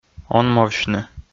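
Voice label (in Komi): "онмовсьны", Komi, male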